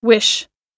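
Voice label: "wish", English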